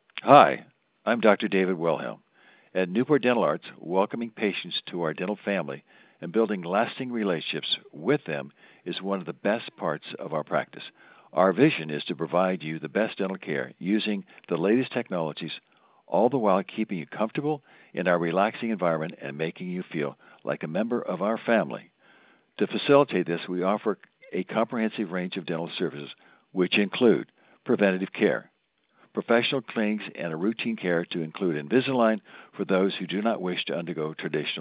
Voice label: Voiceover; Dr. Wilhelm